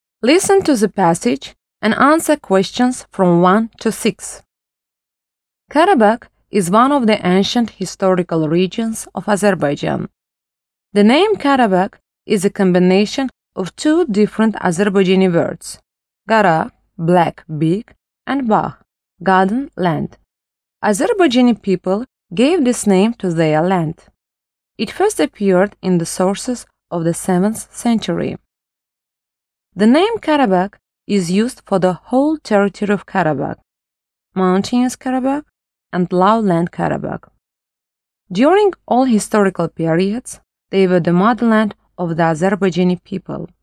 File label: model eng